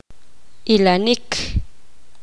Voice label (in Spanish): poqomam mirar